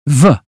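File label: "very", British English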